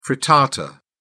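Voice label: "frittata", British English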